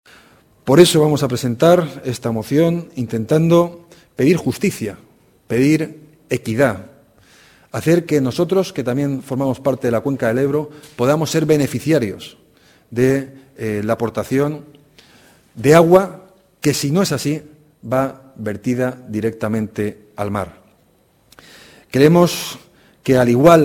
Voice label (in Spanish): Declaracion institucional; Alberto Fabra